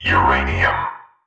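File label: "uranium", male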